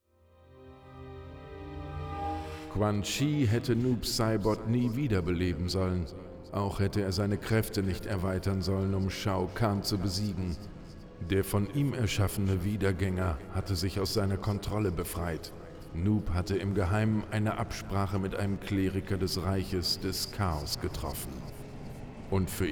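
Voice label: music voice over endings German; noob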